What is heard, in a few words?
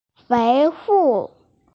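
"fei2wo4", Mandarin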